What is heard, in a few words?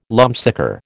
"lumpsucker", American